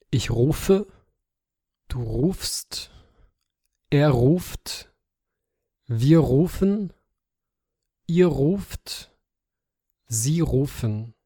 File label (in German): Präsens rufen, duits